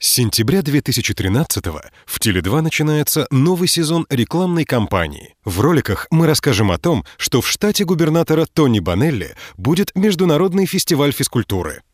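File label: positive presentation, Russian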